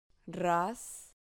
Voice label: "ras", Spanish syllable

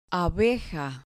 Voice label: "abeja", Spanish

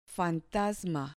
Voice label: "fantasma", Spanish

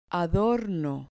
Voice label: "adorno", Spanish

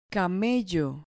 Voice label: "camello", Spanish

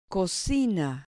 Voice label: "cocina", Spanish

